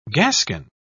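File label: "gascon", British English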